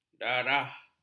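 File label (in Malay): "darah", Malay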